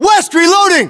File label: inform reloading, battlechatter us